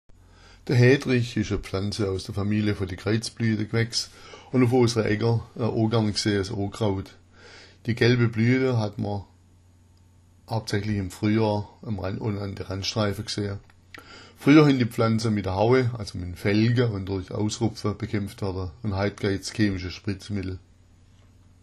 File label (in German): hederich, schwaebisch